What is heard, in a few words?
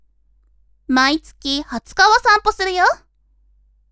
women